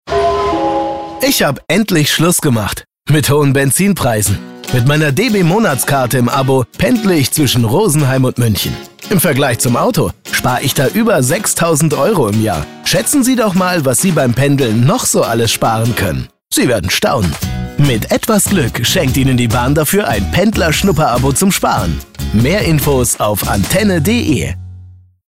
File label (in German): Funkspot; DB Pendlerabo; Processed